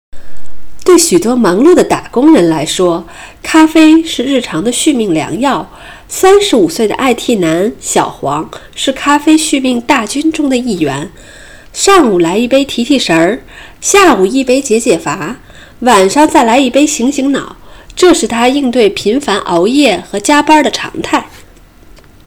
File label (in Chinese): 科普 配音